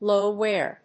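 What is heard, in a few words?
"low wear", English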